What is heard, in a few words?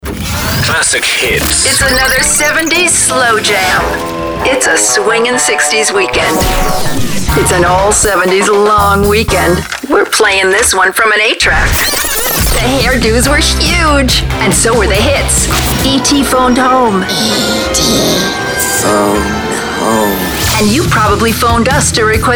Radio Imaging Classic Hits